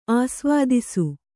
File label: "ಆಸ್ವಾದಿಸು", Kannada; āsvādisu; (Verb); to taste